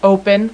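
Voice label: open, female, voice; Web Clip Empire 150,000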